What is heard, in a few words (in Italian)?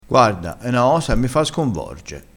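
"sconvorge", dialetto crespinese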